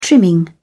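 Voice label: "trimming", British English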